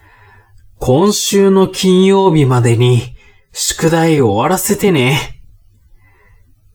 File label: man